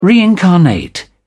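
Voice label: "reincarnate", British English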